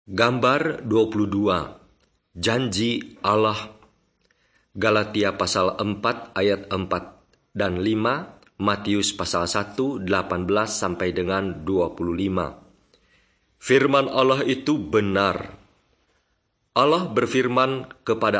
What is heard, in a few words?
bahasa indonesia; beginning with god